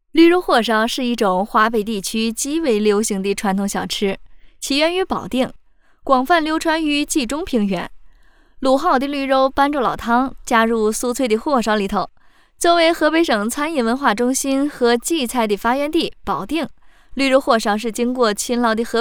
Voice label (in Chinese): 保定方言 女国 驴肉火烧; 方言配音